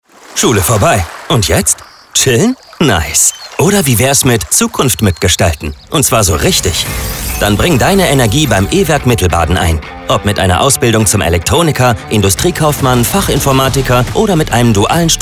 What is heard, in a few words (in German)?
Musik, Recruiting-Spot, Radiowerbung; Radiospot; E-Werk Mittelbaden; Ausbildungstag 2025; „Schule vorbei… und jetzt? Chillen?! – Nice… bewirb dich jetzt beim E-Werk Mittelbaden!“